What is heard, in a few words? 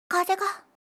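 "kazega", Japanese; kikou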